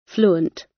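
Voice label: "fluent", British; Language, linguistics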